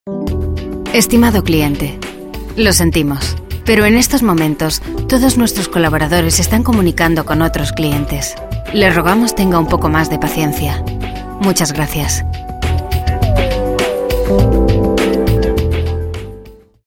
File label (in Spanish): esp